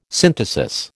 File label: "synthesis", American